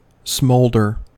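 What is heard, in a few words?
"smolder", American English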